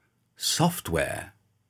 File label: "software", English pronunciation